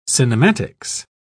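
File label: "cinematics", British English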